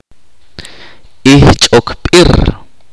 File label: "hija", chorti